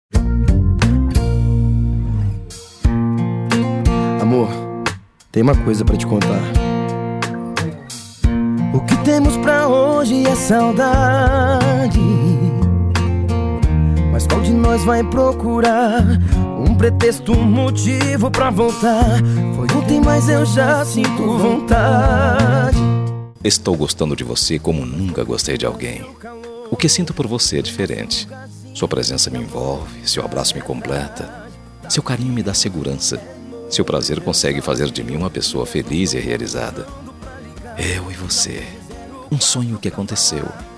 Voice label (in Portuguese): ROMANTICA SUAVE SERTANEJA MASC, Telemensagem